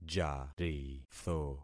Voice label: "jaritho", concat syllables; Affixing experiment